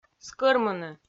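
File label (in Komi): "скӧрмыны", Komi, female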